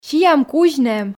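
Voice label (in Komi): "сиам кузь нэм", Komi, female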